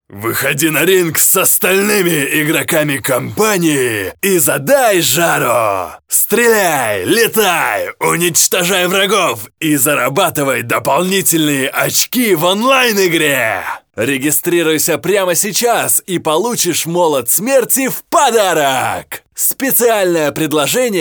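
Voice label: Russian male Rage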